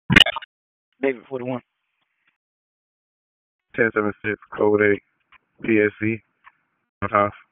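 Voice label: Sheriff's Office Dispatch 1, p25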